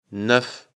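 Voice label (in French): "neuf", French; afrikalan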